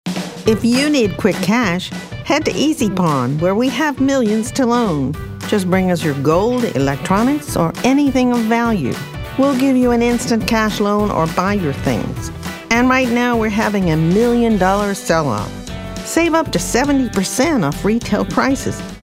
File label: friendly